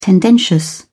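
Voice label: "tendentious", British English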